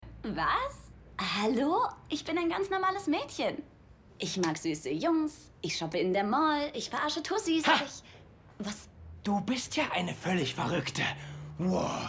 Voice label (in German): Synchron; Resident Advisors; Rolle Rachel